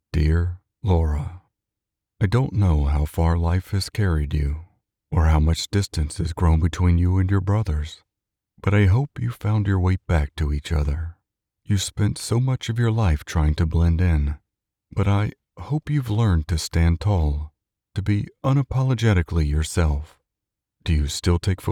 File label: Emotional Heartfelt Sincere; Deep Male VO; Conversational, Commercial, Narration, Promo